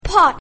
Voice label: "pot", English; grade1; basic sounds 1

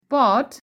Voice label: "pot", english; sun and light

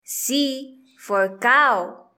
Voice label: "c cow", english; grade1; identifying letters i

